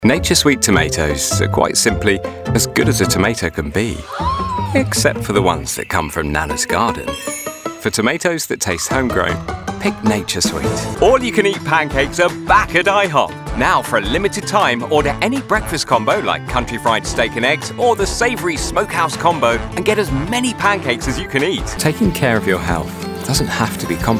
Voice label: Commercial